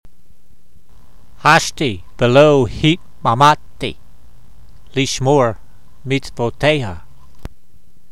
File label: voice, hebrew language; Psalm 119:60; hashtee ve'lo heetmah'mahtee, leeshmor meetsvoteyha